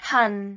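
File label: "han4", Cantonese; microsoft Hiu Maan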